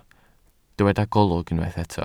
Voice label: "golwg", Welsh